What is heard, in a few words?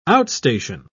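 "outstation", English, British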